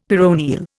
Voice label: "peroneal", Indian; Dictionary